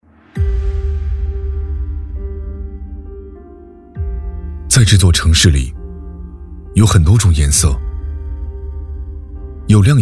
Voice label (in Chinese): 男 旁白; 红土网球